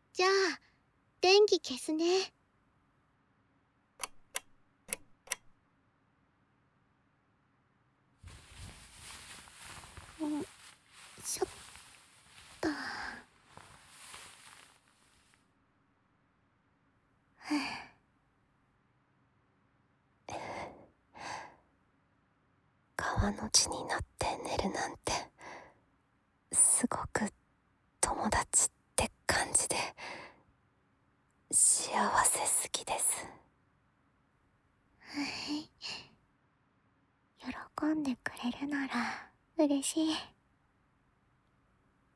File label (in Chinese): 安眠哄睡; 被美少女夹在中间安眠哄睡♪